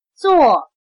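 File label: "作", Chinese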